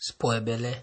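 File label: "spoil belle", Nigerian Pidgin, male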